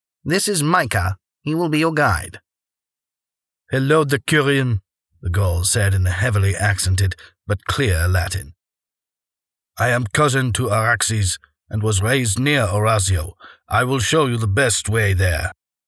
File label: english british audiobooks